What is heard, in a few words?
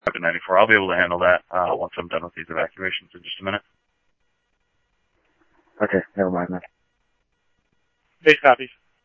PD Police, scanner audio